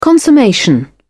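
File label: "consummation", British English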